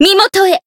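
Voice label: finish, Japanese; rosetta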